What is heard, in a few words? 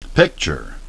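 "picture", English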